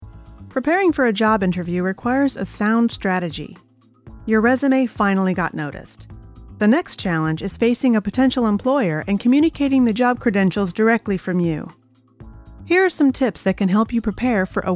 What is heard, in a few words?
Training eLearning Corporate Narration